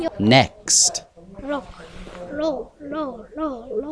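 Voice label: "low", spoken word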